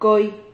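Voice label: "coi", Spanish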